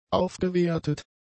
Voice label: "aufgewertet", German; adjectives

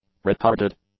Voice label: "retarded", English; adjectives

